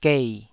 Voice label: "gei3", Taiwanese